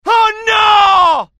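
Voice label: fear grenade, IT; mohaa; dialogue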